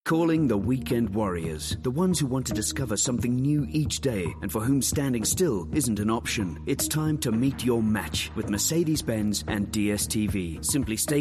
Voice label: English Promo